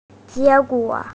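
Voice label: "jié guǒ", Mandarin Chinese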